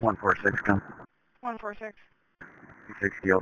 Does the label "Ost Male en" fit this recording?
No